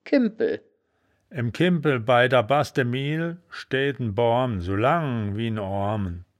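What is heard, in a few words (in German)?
"kimbpel", wittlicher woerterbuch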